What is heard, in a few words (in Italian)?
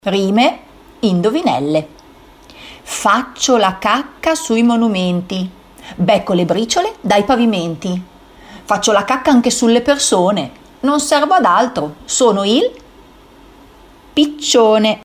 rima indovinello